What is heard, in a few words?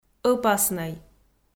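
"ӧпаснӧй", Komi, female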